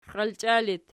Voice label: xialchia loc, archi

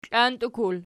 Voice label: "klantukul", archi

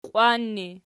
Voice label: "qiwan", Archi

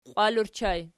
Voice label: "qiwalur", Archi

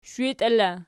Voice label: "shwitiela", Archi

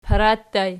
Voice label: "para", Archi

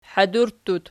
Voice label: "hadurttut", Archi

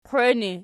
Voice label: "qweni", Archi

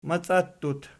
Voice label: "maciattut", Archi

